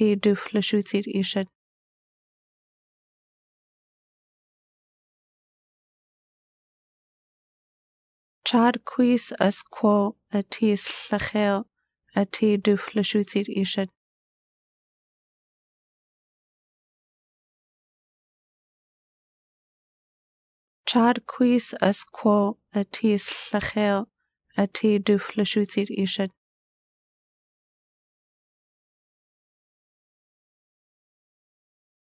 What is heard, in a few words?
where is lushootseed family night, Lushootseed